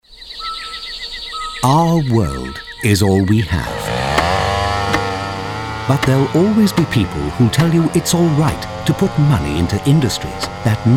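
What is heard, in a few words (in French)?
spot, spots radio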